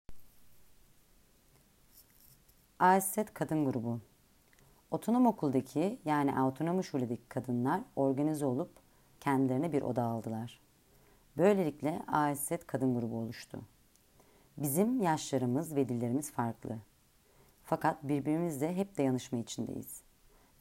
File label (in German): türkisch